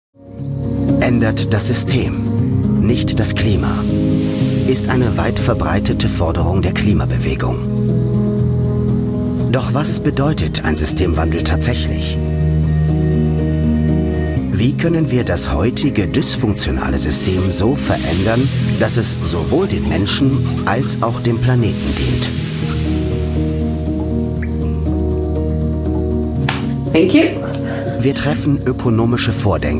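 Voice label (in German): Doku Kommentar, professioneller Sprecher; Kommentar arte Einzeldoku - Outgrow; Outgrow System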